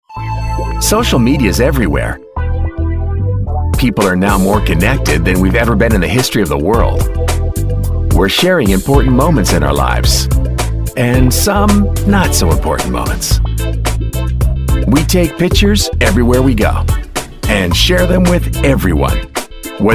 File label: Explainer